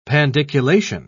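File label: "pandiculation", British English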